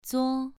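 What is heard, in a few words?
"zuo", Mandarin Chinese, second tone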